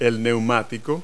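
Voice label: "tire", English word; Multi-Lingual Talking Picture Dictionary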